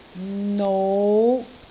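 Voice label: "nou5", Cantonese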